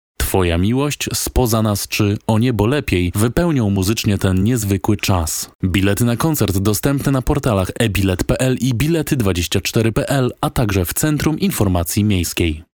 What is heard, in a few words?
male polish voice over talent sales